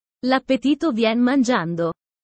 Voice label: italian sayings about life